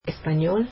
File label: Spanish